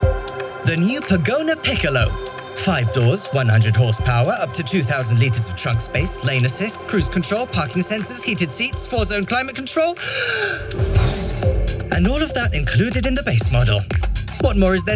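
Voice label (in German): Auto Piccolo EN, Werbung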